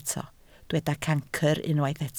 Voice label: "cancr", Welsh

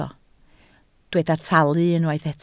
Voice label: "talu", Welsh

